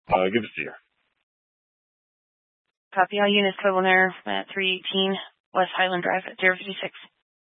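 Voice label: Police Dispatch, Scanner audio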